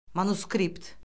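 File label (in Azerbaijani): "manuskript", Azerbaijani; Orfoqrafiya Lüğəti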